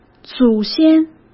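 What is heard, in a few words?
"祖先", Mandarin Chinese; chinese-flashcards; hsk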